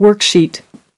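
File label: "worksheet", English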